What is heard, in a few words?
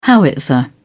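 "howitzer", British English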